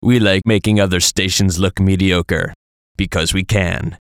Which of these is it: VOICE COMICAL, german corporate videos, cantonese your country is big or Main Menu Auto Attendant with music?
VOICE COMICAL